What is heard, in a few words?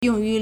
"yong4 yu2", Chinese